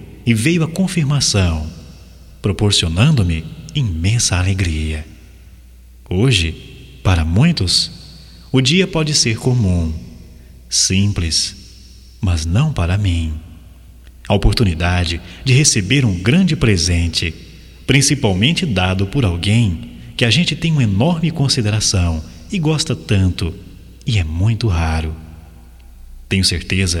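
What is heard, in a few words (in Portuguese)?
AGRAD PELA MENSAGEM, Só Voz, masculino; Telemensagens; Telemensagens 2017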